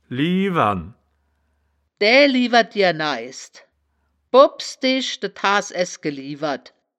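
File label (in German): "liewern", wittlicher woerterbuch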